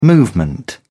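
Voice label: "movement", British English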